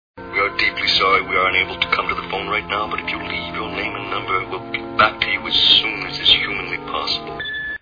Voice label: answer machine